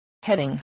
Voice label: "heading", English, spelling